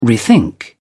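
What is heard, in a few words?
"rethink", English, British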